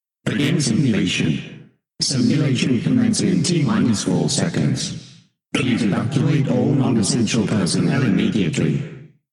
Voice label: Futuristic Voice Warning To Evacuate